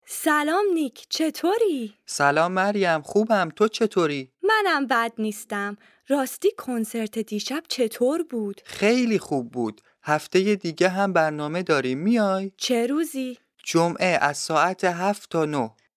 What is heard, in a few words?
Dialogue lesson Farsi